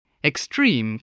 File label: "extreme", voice